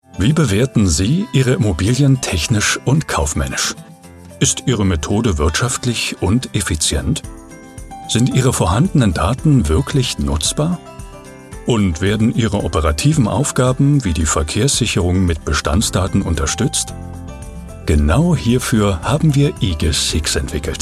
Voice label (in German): Hoerprobe Erklaervideo, Sprecher; IGISsix